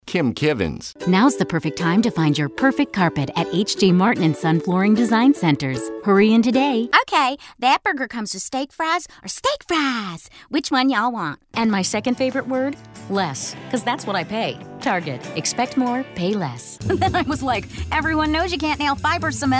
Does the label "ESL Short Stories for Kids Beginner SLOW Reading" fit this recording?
No